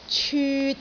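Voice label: "cyut3", Cantonese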